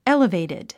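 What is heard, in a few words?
"elevated", 英単語 発音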